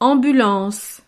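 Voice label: "ambulance", French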